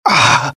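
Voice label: death, dialogue generic Italian; mohaa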